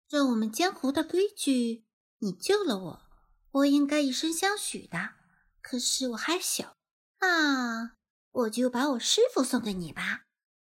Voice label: 萝莉音 以身相许

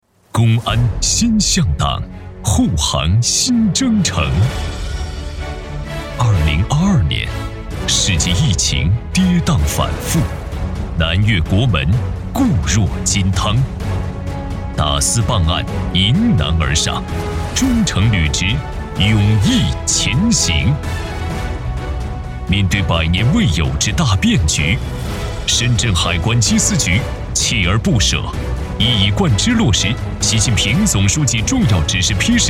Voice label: 专题汇报类; 深圳海关缉私局

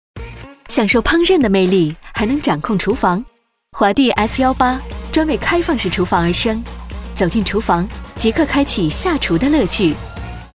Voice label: 广告酷飒时尚; 华帝油烟机

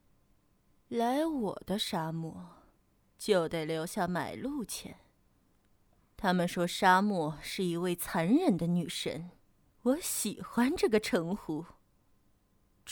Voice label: 女王慵懒霸气

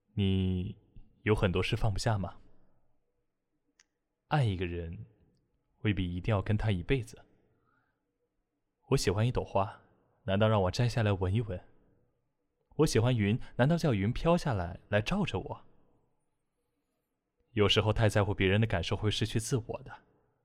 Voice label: 成年男成熟稳重

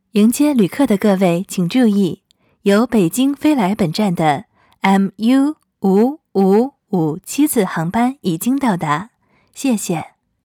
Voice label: 机场广播

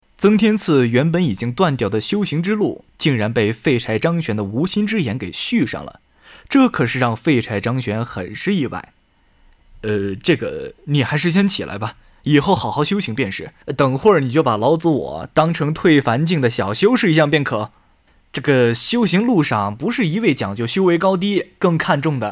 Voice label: 小说播读

